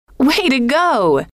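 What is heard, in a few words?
way to go, female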